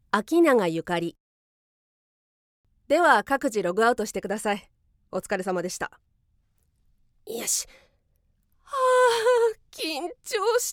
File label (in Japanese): ドジなキャリアウーマン; 方言 ： 関西弁